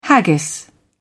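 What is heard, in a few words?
"haggis", British English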